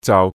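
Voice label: "zaau3", Cantonese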